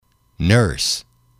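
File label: nurse, Spanish, male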